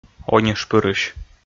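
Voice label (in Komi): "онишпырысь", Komi, male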